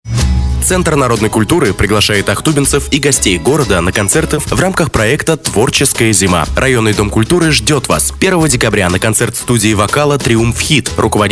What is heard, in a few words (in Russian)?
Reklama